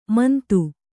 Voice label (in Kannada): "ಮಿಂತು", Kannada